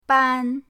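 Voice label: "ban", Mandarin pinyin, first tone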